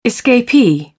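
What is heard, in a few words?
"escapee", British English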